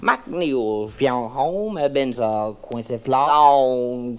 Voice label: mack meeiw feiwuhome hafbenza quincyflawlawn, Voice gibbrish